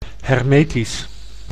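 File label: "hermetisch", Dutch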